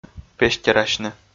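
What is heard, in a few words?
"пестерасьны", Komi-Zyrian, male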